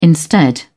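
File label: "instead", British English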